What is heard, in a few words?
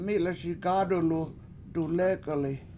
mI7leSi gAAdulu dulEk71li He Is Cooking With Both Hands, Washo